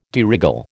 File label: "de rÊgle", American